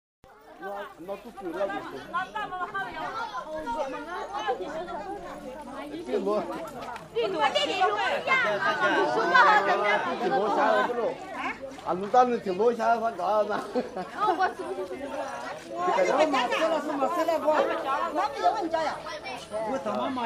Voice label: Lisu talk